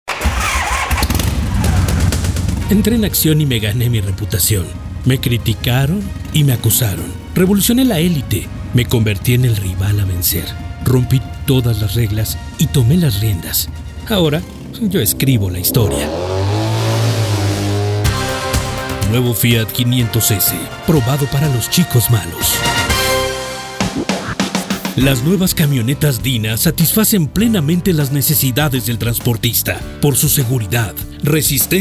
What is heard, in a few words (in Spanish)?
Demo Institucional